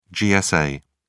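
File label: "gsa", British English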